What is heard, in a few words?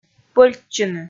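"пӧльтчыны", Komi, female; kpv audio dict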